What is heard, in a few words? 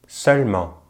"seulement", French